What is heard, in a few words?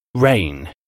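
"rain", English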